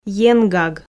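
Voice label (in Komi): "енгаг", Komi, female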